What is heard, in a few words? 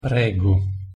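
pronunciation "prego", Italian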